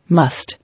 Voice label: "Must", English

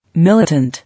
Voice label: "Militant", English

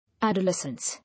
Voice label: "Adolescence", English

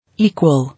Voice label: "Equal", English

